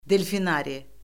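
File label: "delfinari", Azerbaijani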